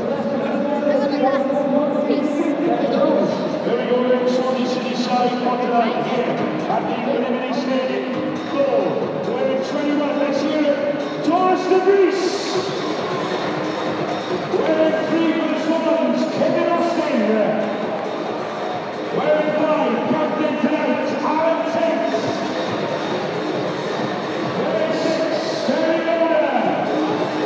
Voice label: swansea city fc team announce